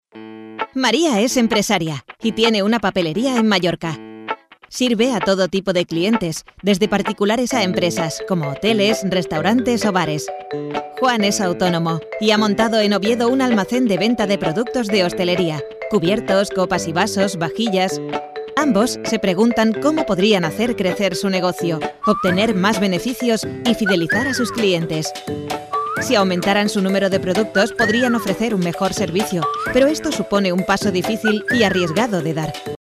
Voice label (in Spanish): narración